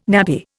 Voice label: "nappy", Indian